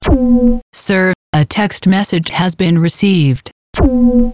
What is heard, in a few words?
Voice Announcing